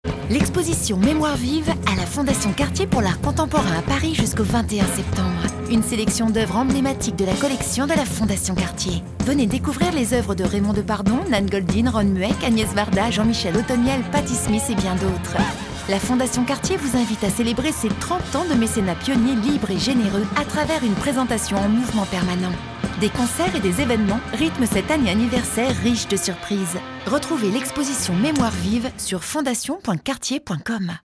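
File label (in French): sweet Punchy, comédienne voix off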